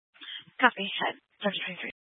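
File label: Police 1 Dispatch, Scanner audio recording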